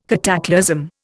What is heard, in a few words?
"cataclasm", Indian